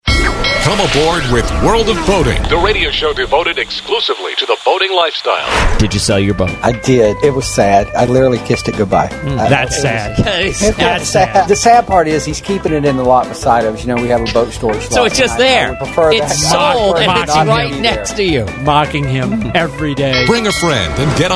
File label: Promo